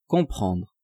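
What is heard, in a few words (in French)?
"comprendre", French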